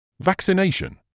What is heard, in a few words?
"vaccination", medical English